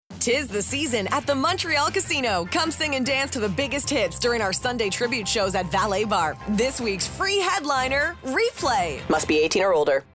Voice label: female English neutral North American accent casino; english canadian